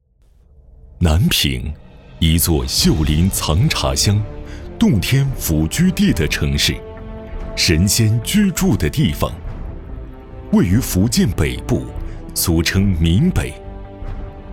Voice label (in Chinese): 男 宣传片 大气 城市